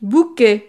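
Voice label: "bouquet", French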